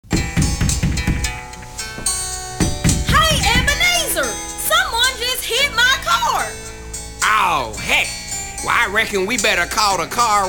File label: commercial